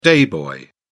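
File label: "day boy", English, British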